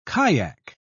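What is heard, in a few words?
"kaiak", British English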